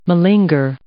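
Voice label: "malinger", English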